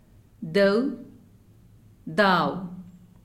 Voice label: "Though" "thou"